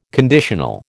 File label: "conditional", American